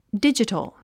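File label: "digital", English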